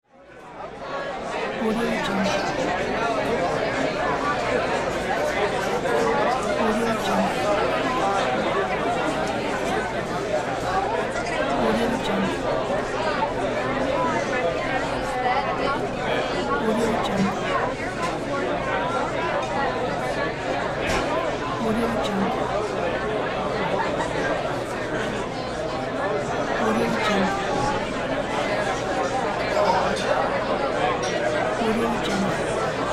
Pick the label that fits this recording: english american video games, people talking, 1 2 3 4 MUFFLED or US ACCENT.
people talking